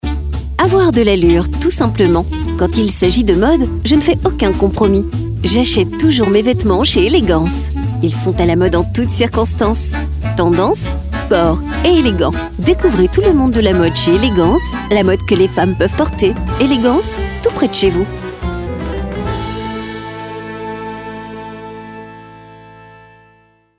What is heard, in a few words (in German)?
Radio POS Sprecher